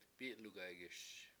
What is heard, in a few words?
"p7I7lugaygiS", Washo; to roll over